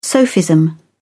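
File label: "sophism", British English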